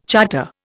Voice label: "charter", Indian

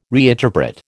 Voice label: "reinterpret", American

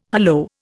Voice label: "hallo", Indian

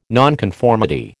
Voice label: "nonconformity", American

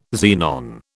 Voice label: "xenon", British

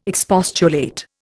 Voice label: "expostulate", Indian

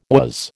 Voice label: "was", American

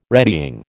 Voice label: "retying", American

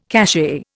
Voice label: "cachet", Indian

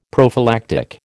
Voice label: "prophylactic", American

